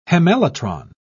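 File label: "hemelytron", British English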